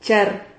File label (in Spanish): "char", Silabas